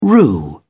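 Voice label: "rue", English, British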